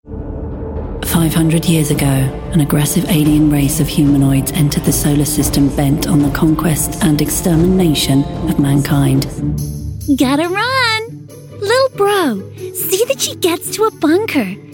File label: Video Game Voicereel